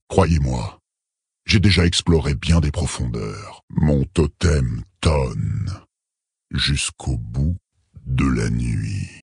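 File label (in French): Male Flirt, voix françaises; Tauren Haut Roc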